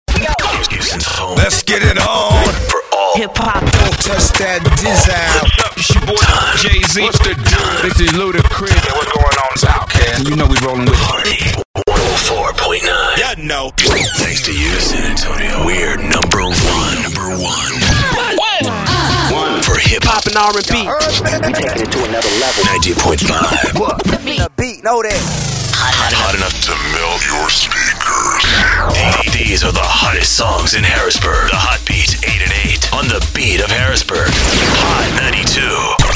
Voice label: Rhythmic, radio imaging